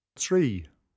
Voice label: "tri" Irish